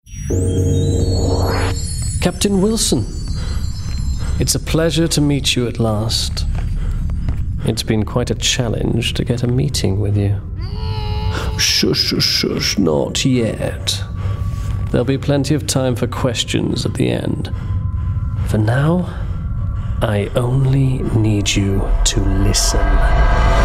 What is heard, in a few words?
CHARACTER Villain RP